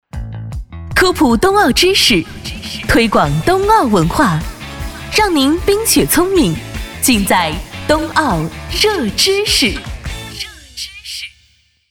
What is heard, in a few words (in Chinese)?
女,电台包装; 全媒午高峰; 冬奥